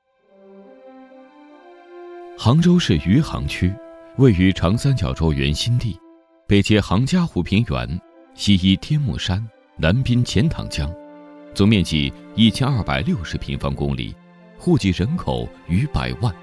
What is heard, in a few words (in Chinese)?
学校宣传, 温暖; 余杭区社区学院